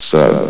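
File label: "sub", male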